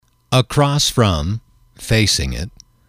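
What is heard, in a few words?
"across from", English, male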